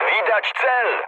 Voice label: near waypoint mood med, speech pol; War Thunder